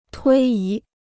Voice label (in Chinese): "推移", Chinese